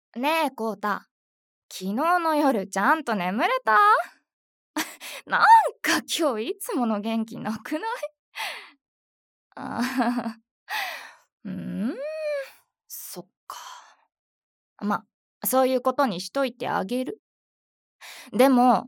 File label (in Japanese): 地声 幼馴染